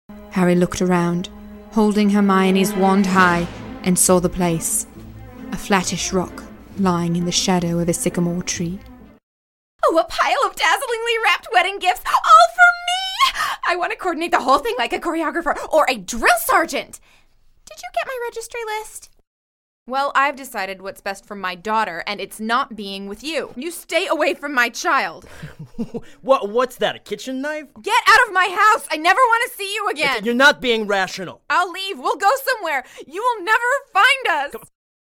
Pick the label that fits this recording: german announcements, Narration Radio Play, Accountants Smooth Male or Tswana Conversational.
Narration Radio Play